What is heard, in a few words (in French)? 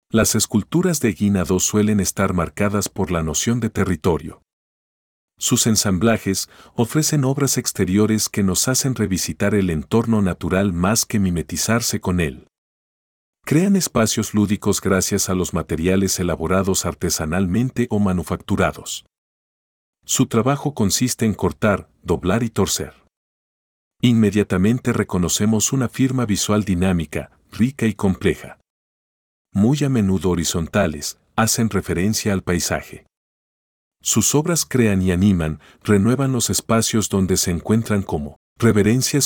espagnol